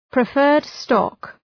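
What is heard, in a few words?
"preferred stock", English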